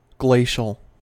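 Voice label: "glacial", US English; pronunciations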